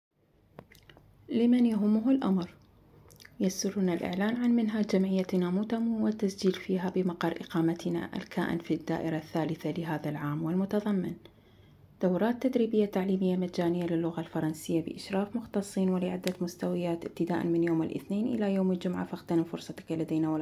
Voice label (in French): arabe; MOTAMOT